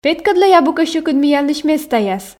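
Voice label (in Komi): петкӧдлӧй абу кӧ сьӧкыд миянлысь местаяс, Komi, female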